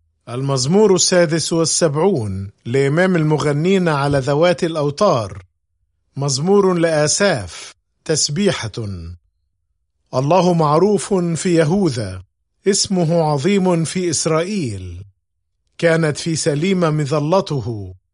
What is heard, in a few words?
Psalm, arabic bible; psalms